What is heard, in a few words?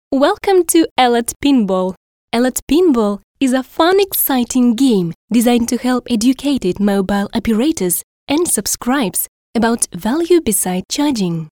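English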